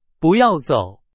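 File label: Chinese, example sentence; hsk2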